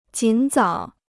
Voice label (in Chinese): "尽早", Chinese